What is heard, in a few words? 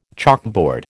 "chalkboard", American